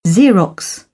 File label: "xerox", British English; ɑː - ɒ